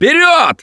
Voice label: emphatic go, russian; Gambler